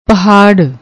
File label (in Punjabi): "pahar", Punjabi; noun, masculine; mountain, mountain range; North; uphill task